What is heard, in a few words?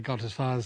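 goD as far as, Standard Southern British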